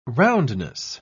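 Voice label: "roundness", British English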